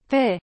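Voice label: "paix", French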